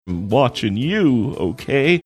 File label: saying watching you okay funny manner